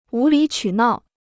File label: "无理取闹", Chinese